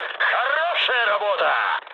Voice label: player killed enemy, mood med, speech ru

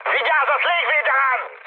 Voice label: damaged aa, mood high, speech hun; War Thunder

